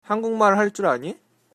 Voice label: do you speak, Korean